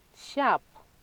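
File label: "sharp", Nigerian Pidgin, female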